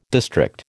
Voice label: "district", American